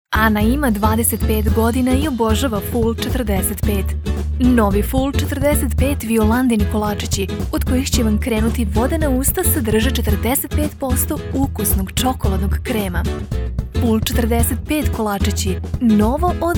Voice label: Serbian